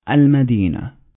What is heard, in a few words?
"al-Madinah", Arabic